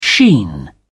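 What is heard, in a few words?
"sheen", British English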